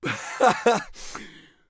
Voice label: scav mutter, voice; Escape from Tarkov; scav2